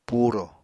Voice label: "purò", Apatani